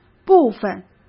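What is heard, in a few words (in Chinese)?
"部分", Mandarin Chinese; chinese flashcards; hsk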